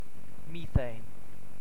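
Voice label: "methane", British English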